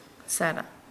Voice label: Nukuoro; find